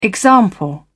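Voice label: "example", British English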